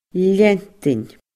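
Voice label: "leantainn", Scottish Gaelic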